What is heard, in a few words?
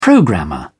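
"programmer", British English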